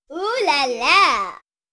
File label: Child says Ooh la la; beanstalk audio